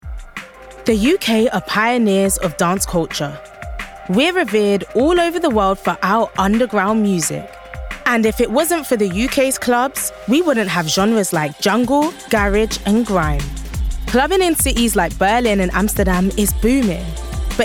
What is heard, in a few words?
Documentary; Young, fresh and relevant.; actress